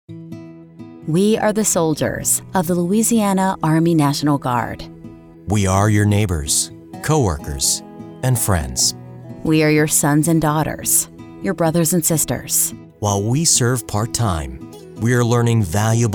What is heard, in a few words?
Radio English